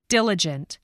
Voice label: "diligent", english spelling